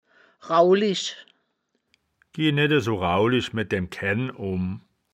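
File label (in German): "raulisch", wittlicher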